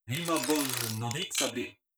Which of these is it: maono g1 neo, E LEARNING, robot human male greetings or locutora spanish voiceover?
robot human male greetings